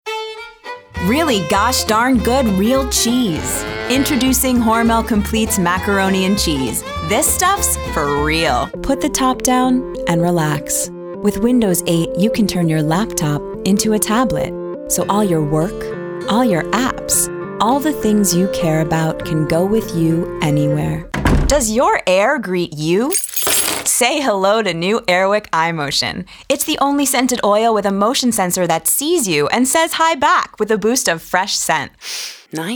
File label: Women Commercial